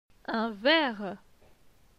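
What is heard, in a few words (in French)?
"Un verre", French; phonetique; les consonnes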